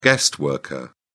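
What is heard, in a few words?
"guest worker", British English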